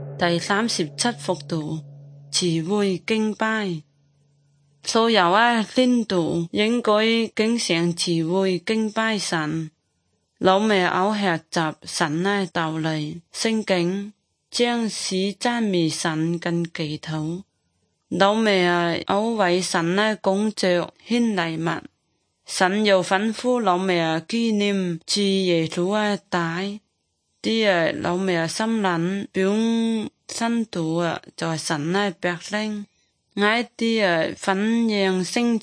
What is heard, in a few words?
good news zhuang guigang guiping